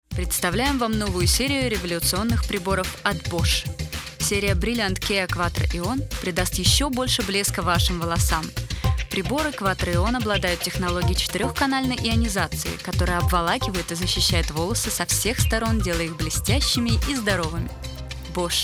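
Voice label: elearning russian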